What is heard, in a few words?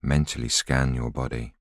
voiceover